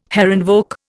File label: "Herrenvolk", Indian